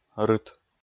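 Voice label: "рыт", Komi, male; kpv audio dict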